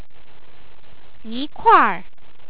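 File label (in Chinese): "yikuair", Chinese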